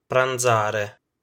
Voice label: "pranzare", Italian

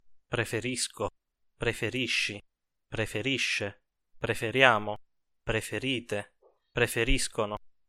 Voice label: preferire coniugazione, Italian

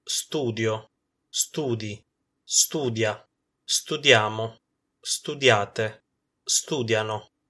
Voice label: studiare coniugazione, Italian

